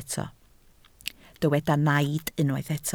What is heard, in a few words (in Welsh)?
"naid", Welsh